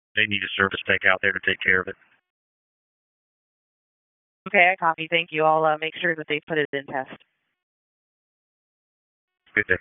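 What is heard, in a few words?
FIRE Operations, p25; wake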